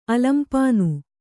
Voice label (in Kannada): "ಅಲಂಪಾನು", Kannada